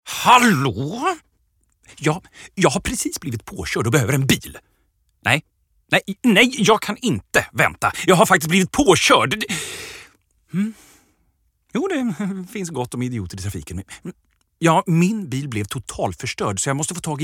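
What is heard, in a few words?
Swedish male karaktär; character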